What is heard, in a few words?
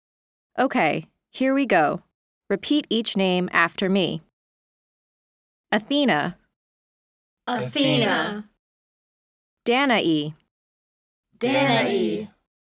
Pronunciation Guide; Scope Play; hunting a snake headed monster